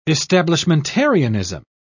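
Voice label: "establishmentarianism", British English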